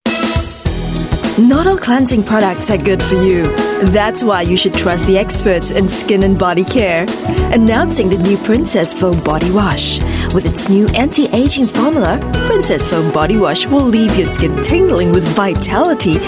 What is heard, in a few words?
Retail, English Singaporean Female